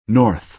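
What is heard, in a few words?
"north", American English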